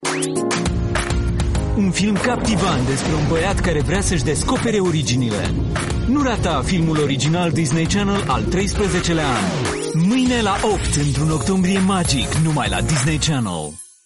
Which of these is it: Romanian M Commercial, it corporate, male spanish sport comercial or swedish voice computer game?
Romanian M Commercial